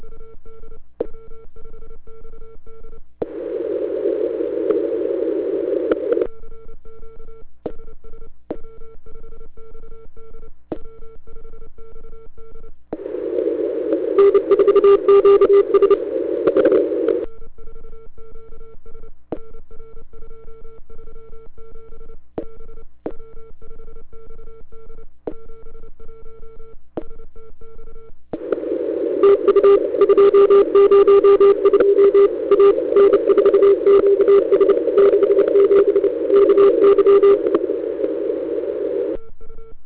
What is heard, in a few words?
QSO